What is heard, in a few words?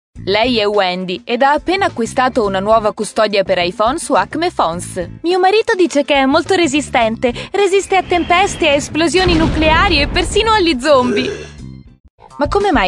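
IT General, Italian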